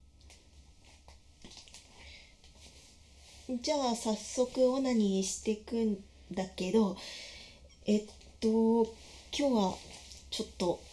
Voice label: 電マの振動に戸惑う, カウント, ステレオ, ASMR

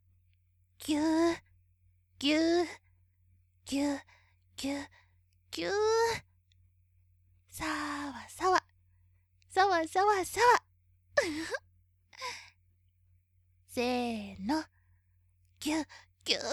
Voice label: ハグコース, ASMR; 普通のお客さん版

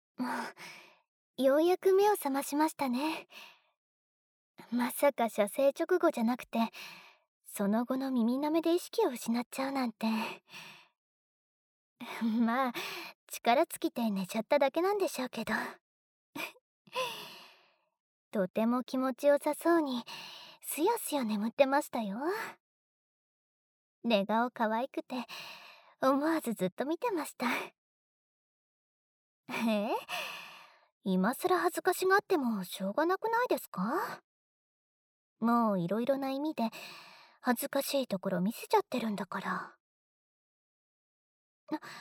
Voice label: 優しいまりののピロートーク, ASMR; カプセルの効果が切れて…

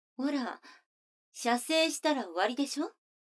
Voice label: 女の子 台詞

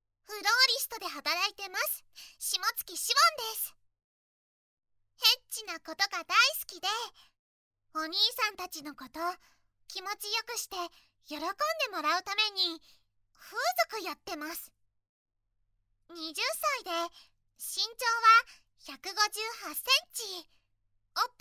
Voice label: 自己紹介, ASMR

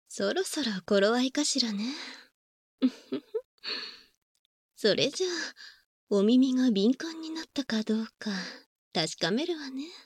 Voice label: 耳舐め手コキ, バイノーラルマイク収録; 旧効果音あり小さめ

